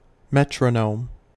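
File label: "metronome", US English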